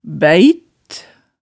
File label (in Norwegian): "bæit", hemsedalsmaalet tale